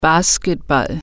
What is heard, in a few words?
"basketball", german